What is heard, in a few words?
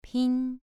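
"pin", Mandarin Chinese syllable, second tone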